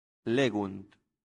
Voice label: "legunt", latin; santillana

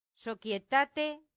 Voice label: "societate", latin; santillana

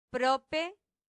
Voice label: "prope", latin; santillana

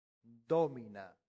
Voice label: "domina", latin; santillana

